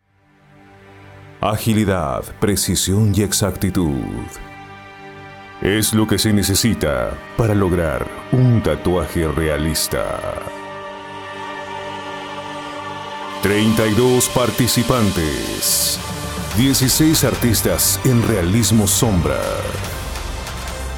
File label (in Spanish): LOC EN VIVO TEASER REALITY; COLOMBIA; DISCIPLINK